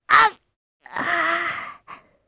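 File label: Vox Dead, Japanese; Rephy; kingsraid; voices heroes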